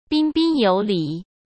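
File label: "彬彬有礼", Mandarin Chinese; hsk; chinese flashcards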